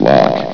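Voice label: "lock", English, male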